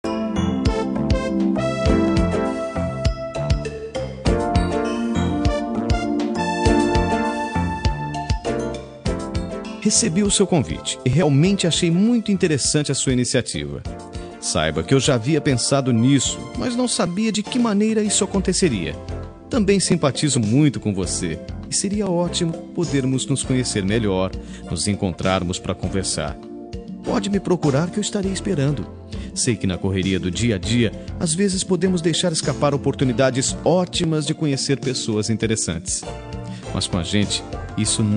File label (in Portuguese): Voz Masculina; Telemensagem Resposta; Sim quero te Conhecer